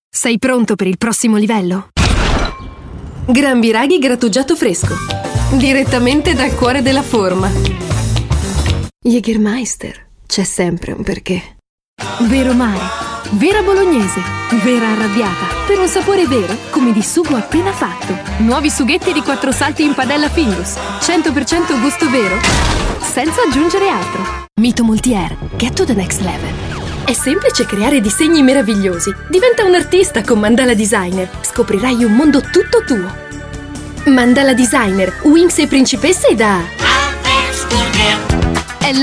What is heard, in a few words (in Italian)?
demo istituzionale